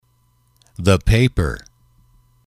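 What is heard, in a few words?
"the paper", English, male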